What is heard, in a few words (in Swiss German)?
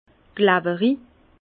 "klawerig", Bas Rhin; vin; visqueux